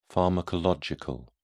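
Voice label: "pharmacological", English, British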